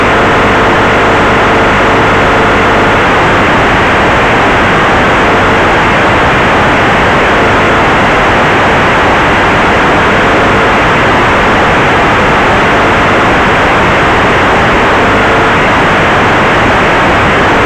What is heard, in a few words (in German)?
CQ Ruf D Star Modulation; SN-Vergleich